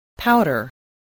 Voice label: "powder", English